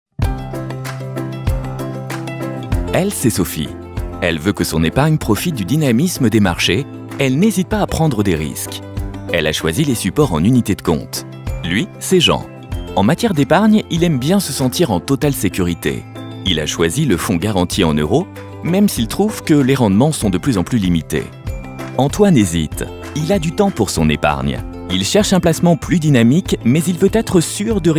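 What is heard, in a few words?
fr commercial, French; fransk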